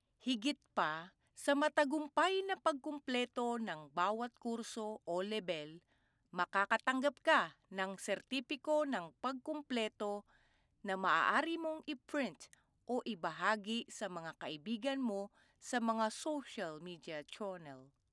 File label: tagalog promos